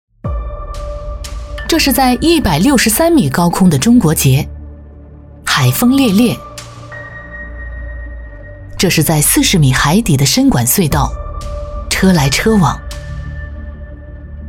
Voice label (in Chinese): 女 专题 大开大合纪实; 港珠澳大桥